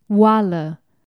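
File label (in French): "marmite", Romanian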